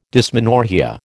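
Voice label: "dysmenorrhoea", American English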